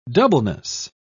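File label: "doubleness", British English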